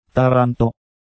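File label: "Taranto", Italian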